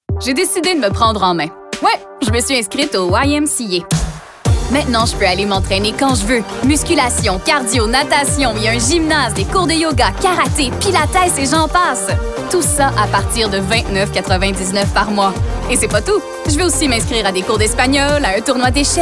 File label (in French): narration annonceur promo energique amical affirme jeune adulte; ymca